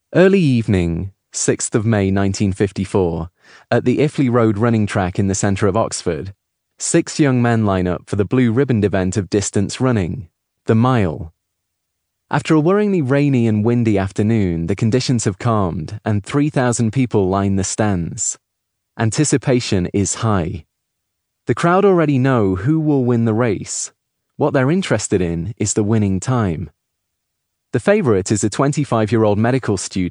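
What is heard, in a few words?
non fiction audiobook 3rd person, Male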